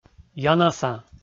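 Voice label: "янаса", Komi, male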